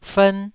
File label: "fern1", taiwanese syllable